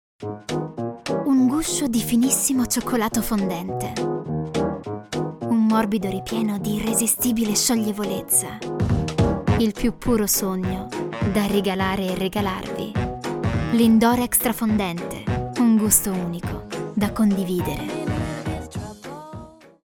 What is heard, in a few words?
Smooth; Lindor